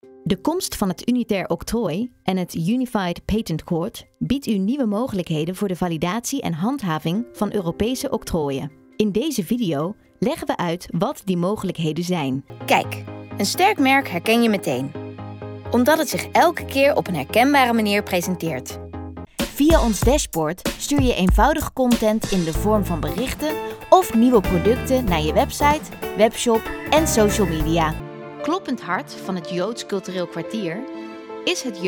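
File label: voice over corporate NL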